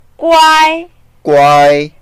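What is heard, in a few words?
"guai1", Mandarin Chinese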